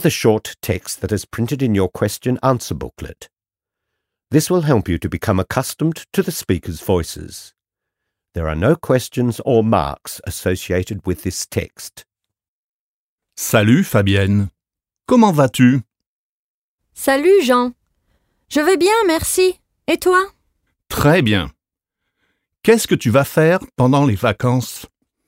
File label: French Background Language